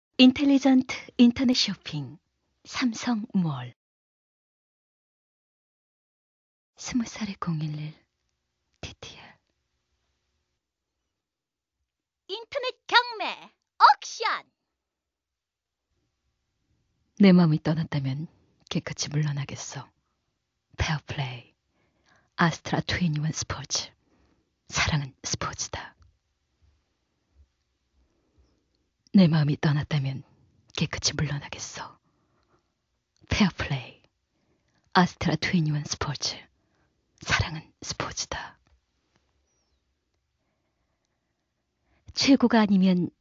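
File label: Korean female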